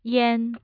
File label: yan1, Mandarin tone